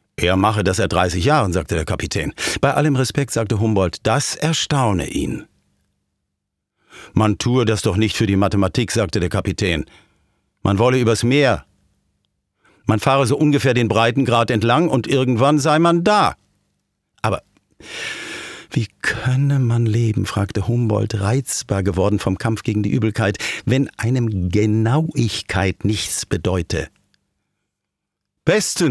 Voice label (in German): Hoerspiel